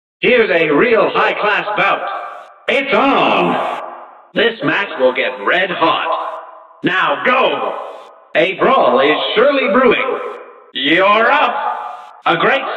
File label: Announcer Voices; Cuphead